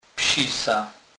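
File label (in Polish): "psisa", Kurpie dialect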